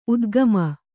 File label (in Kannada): "ಉದ್ಗಮ", Kannada